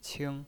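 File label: "qing" first tone, pinyin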